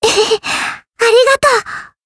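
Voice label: healed, Japanese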